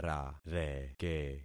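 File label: "rareke", concatenated syllables; Affixing experiment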